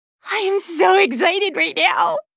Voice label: Intro Response Positive; Li Li; Heroes of the Storm; warcraft